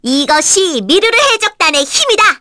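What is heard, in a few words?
Vox Skill, Korean; Miruru; kingsraid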